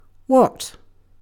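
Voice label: "what", British English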